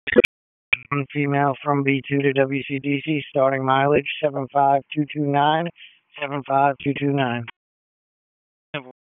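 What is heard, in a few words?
Sheriff's Office Dispatch; WSO DISP 3; p25; wake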